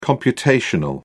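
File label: "computational", English, British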